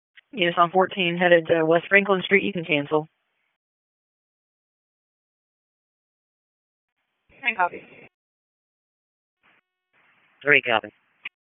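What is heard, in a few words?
Public Safety Tactical, p25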